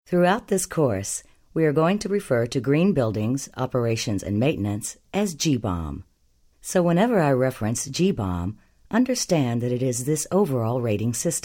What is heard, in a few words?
VO F